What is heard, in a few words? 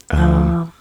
um two part harmony